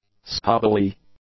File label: "stubbly", English; adjectives